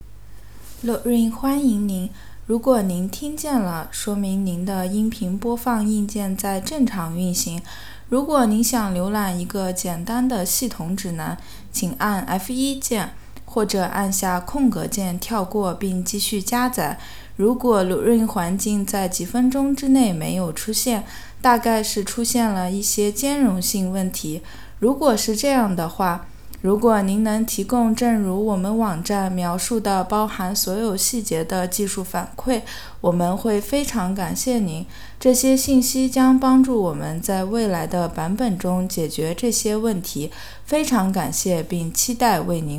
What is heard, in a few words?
Chinese, greeting